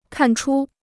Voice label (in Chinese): "看出", Chinese